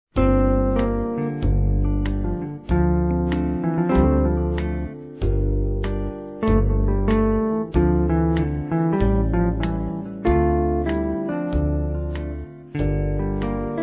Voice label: Aniversário Cliente Feminino, Telemensagens; Empresarial; Telemensagens 2017

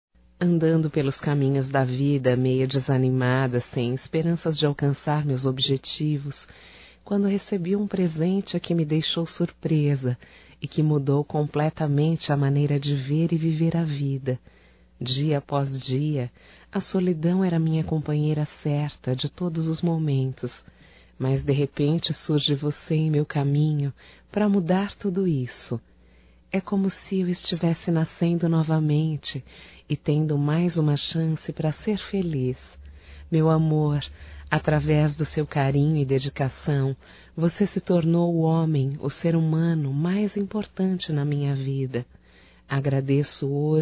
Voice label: ROMANTICA(F), Românticas, fem

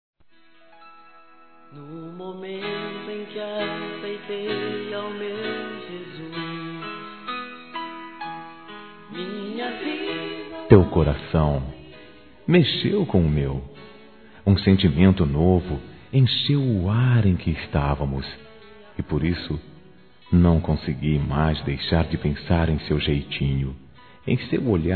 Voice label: Trilha PAQUERA M, Telemensagens Gospel masc

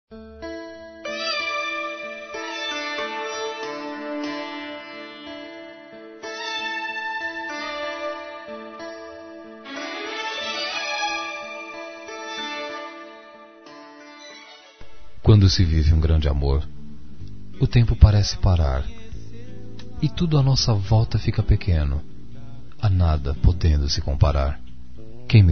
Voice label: Relacionamento Que Acabou Masculino, Telemensagens; Dia Dos namorados; Telemensagens 2017

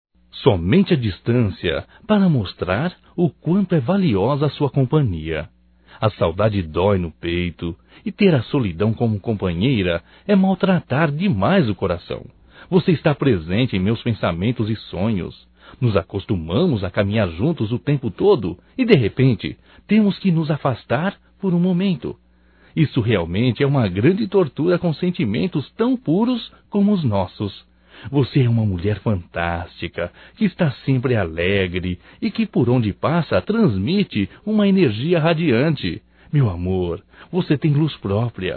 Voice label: SAUDADES ROMANTICA, Só Voz, masc; Telemensagens; Telemensagens 2017

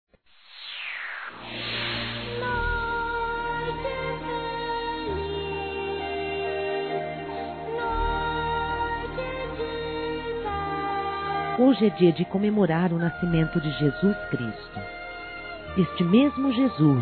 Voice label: NATAL E ANO NOVO ROMANTICO; Telemensagens; fem; Misto

